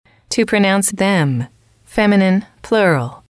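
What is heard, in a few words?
"to pronounce it", English, female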